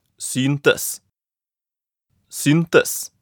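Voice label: "syntes", Norwegian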